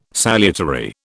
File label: "salutary", British